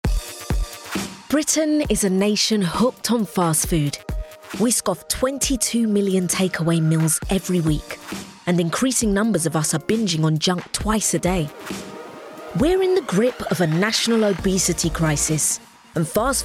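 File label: documentary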